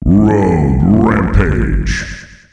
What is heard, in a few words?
Road Rampage, announcer male; Unreal Tournament; ut2004